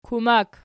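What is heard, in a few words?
"kumak", nominative, Archi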